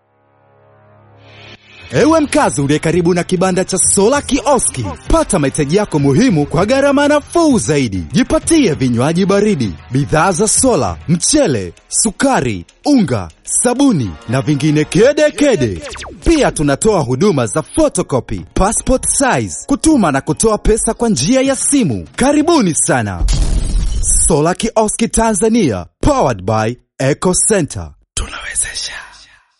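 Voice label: male, Tanzanian Swahili, male, commercial; voice-over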